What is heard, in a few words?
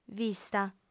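"vista", Sardinian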